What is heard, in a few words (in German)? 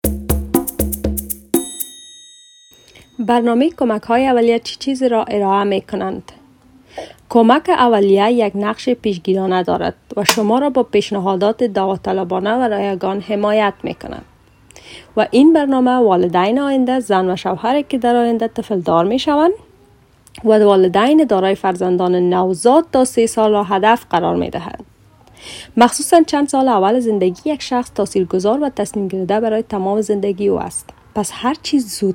persisch allgemein